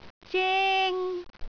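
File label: "zeng1", Cantonese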